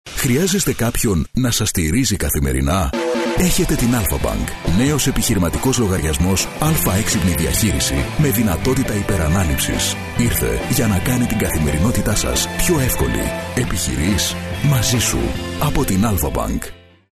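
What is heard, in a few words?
Greek, male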